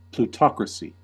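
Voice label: "plutocracy", US English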